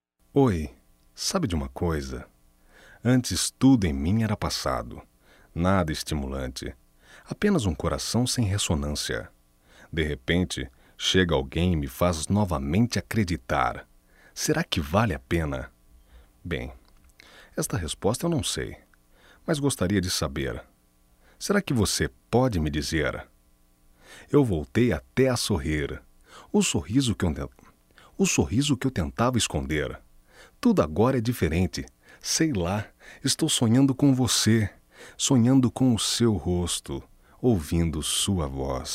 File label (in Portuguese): Sedução Masculino, Só Voz; Telemensagens; MOMENTOS DE AMOR